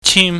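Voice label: "chim", Cantonese, high falling tone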